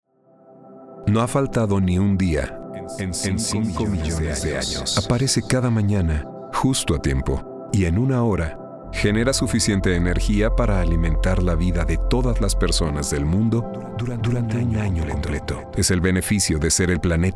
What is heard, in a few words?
Commercial